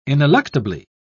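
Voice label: "ineluctably", British English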